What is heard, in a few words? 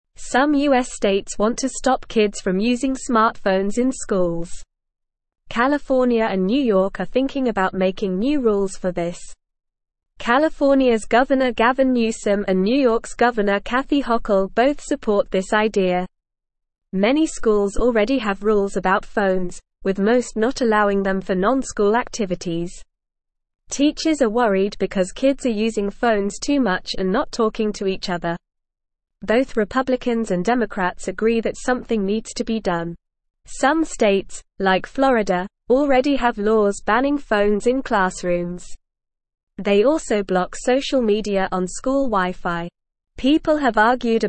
English NORMAL Reading; States Consider Banning Phones in Schools to Help